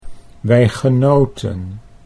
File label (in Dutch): "wij genoten", Dutch